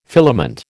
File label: "filament", American